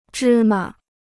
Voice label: "芝麻", Chinese